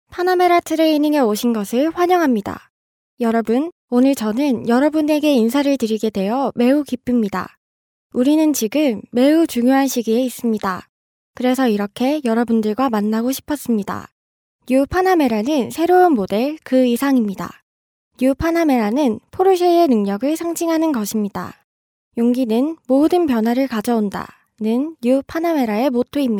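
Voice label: Korean, female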